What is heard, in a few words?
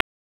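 Raleigh Police Special Operations Tactical, p25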